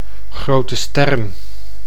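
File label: "grote stern", Dutch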